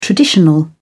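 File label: "traditional", British English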